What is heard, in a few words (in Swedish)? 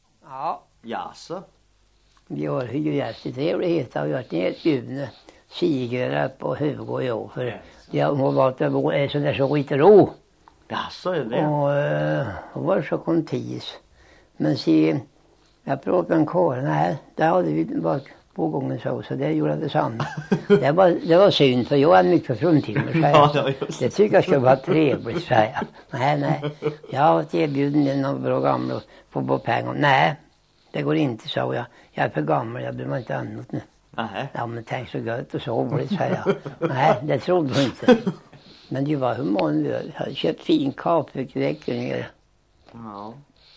Västra Eneby, Svenska sagor, dialekter; Folkminnen